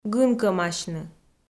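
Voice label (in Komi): "гынкӧмасьны", Komi, female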